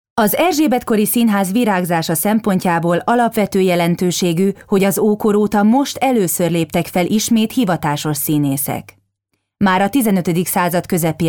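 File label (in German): Hungarian Dynamisch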